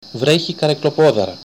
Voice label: "rain", Greek